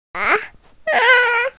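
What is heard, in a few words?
"wan2", Mandarin Chinese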